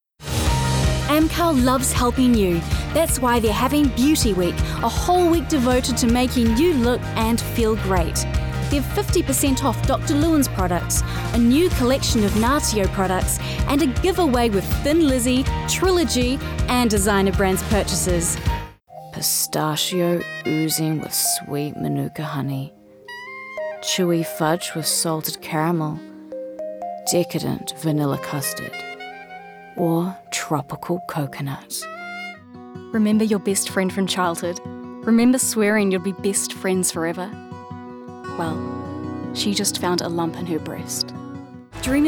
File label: Commercial NZ